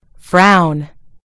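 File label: "frown", British English; gcompris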